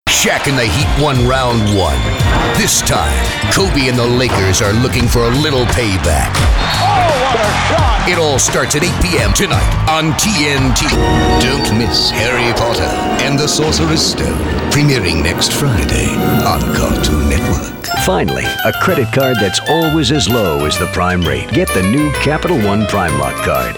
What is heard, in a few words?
commercial